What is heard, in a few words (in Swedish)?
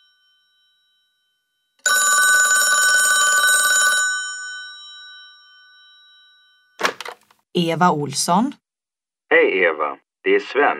Fraser nar man talar i telefon